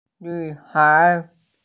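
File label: "girl", Mandarin; nv3hai2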